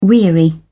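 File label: "weary", British English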